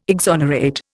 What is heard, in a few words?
"exonerate", Indian